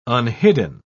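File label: "unhidden", British English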